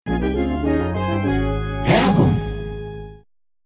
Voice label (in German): werbung 90er